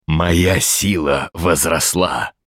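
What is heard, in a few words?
Hero Level Up Russian, Мужчина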